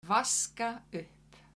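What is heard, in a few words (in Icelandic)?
"vaska upp", Icelandic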